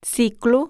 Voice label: portuguese cycle; samsung YP-U5; Audio User Interface

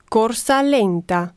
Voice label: italian run slow; samsung YP-U5; Audio User Interface

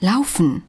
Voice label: "walk", German; samsung YP-U5; Audio User Interface

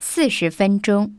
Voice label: chinese 40 min; samsung YP-U5; Audio User Interface

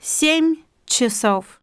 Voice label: russian 1 hour; samsung YP-U5; Audio User Interface

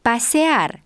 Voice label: "walk", Spanish; samsung YP-U5; Audio User Interface

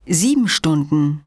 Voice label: german 5 hour; samsung YP-U5; Audio User Interface

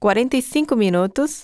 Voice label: portuguese 45 min; samsung YP-U5; Audio User Interface

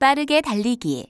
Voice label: Korean run fast; samsung YP-U5; Audio User Interface

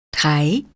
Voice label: german count 1; samsung YP-U5; Audio User Interface

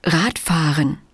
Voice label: german cycle; samsung YP-U5; Audio User Interface

